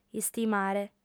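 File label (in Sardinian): "istimare", Sardinian, female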